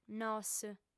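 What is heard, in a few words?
"nos" Sardinian, female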